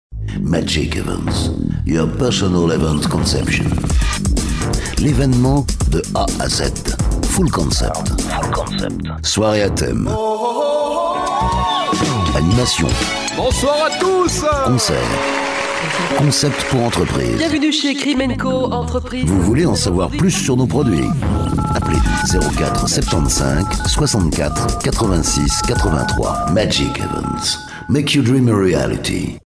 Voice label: spot; Magic Events